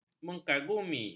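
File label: "mengagumi", Malay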